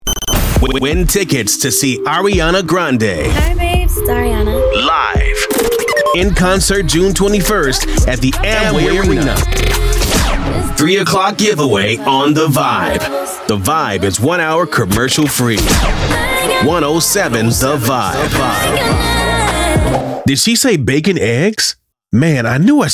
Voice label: radio men chr, radio imaging

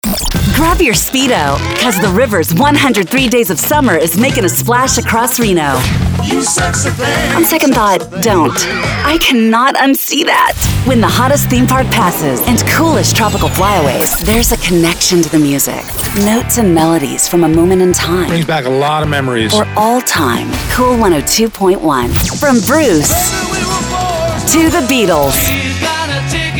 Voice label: radio women adult hits, radio imaging

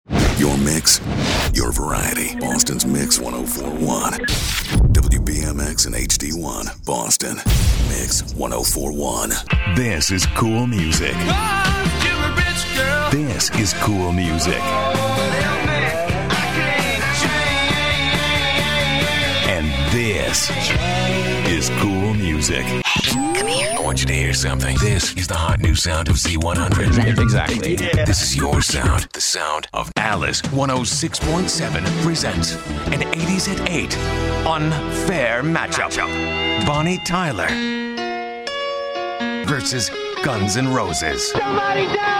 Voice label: radio

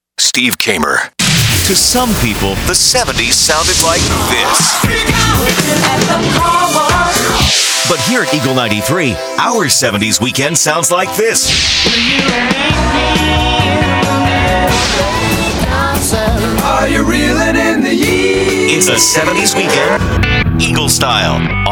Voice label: classicrock, radio imaging

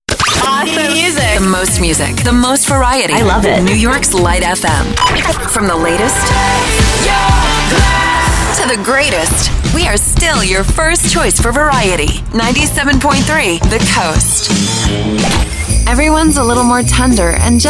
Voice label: radio ac; radio imaging

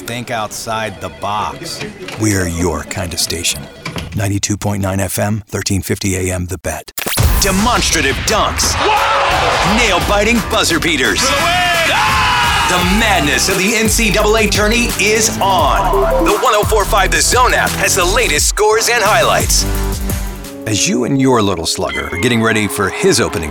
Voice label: radio imaging men sports

